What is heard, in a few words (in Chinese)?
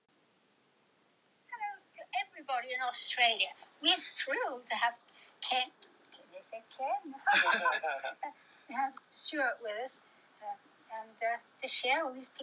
专业英式女声配音; 自然AI旁白